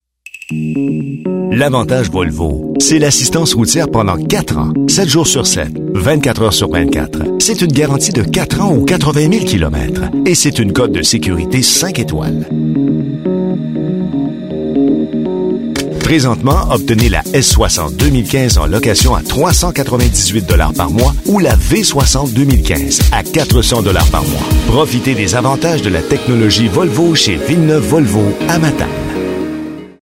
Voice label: Message TV; Volvo